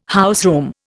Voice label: "houseroom", Indian